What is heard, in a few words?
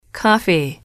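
"coffee", English, female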